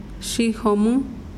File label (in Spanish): "arena", mazahua